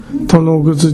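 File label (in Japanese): "tonoguzu", 南山形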